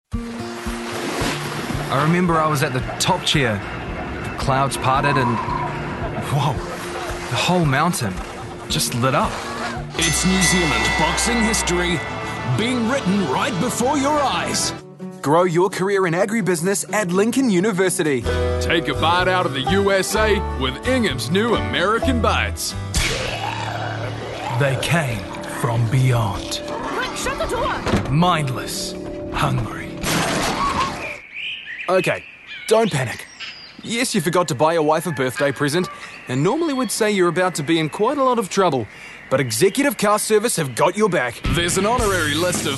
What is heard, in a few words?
Voice Actor; BigMouthVoices